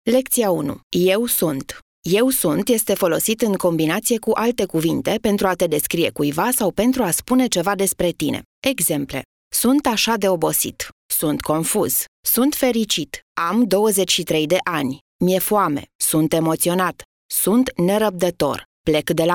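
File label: Romanian, female, Elearning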